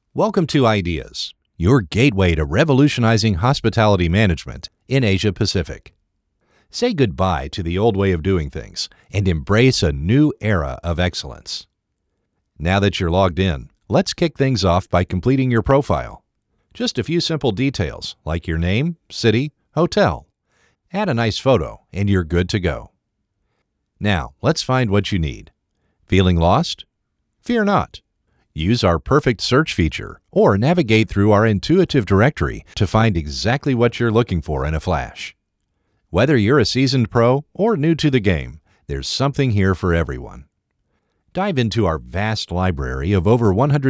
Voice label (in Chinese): 深沉磁性, 干音